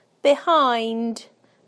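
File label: "behind", British English; PictureDictionary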